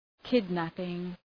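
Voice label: "kidnapping", English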